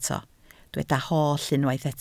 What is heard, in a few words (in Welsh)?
"holl", Welsh